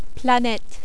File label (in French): "planete", French